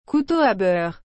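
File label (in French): "couteau à beurre", French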